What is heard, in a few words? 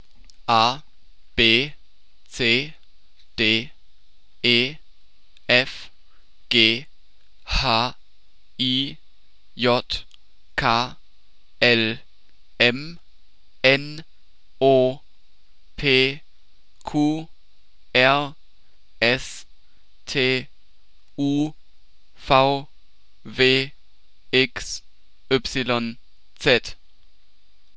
German alphabet